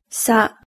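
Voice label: "sa", Japanese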